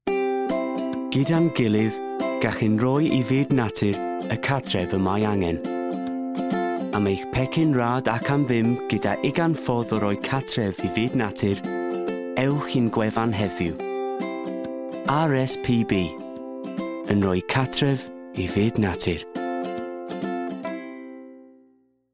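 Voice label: Welsh; Male; 20s-30s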